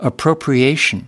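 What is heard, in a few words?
"appropriation", US English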